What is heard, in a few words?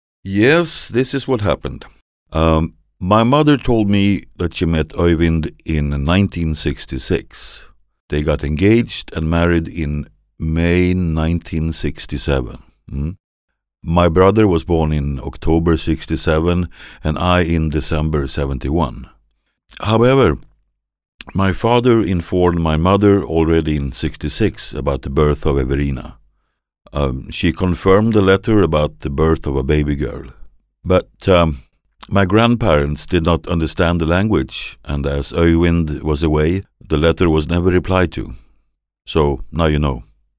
Swenglish Storyteller